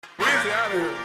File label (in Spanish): Voces Hot